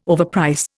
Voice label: "overprice", Indian